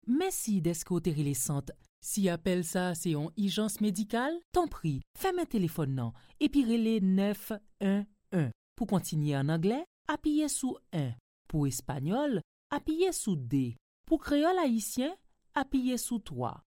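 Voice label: Haitian Creole IVR; GoLocalise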